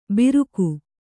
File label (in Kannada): "ಬಿರುಕು", Kannada